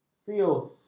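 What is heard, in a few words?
"fius", Malay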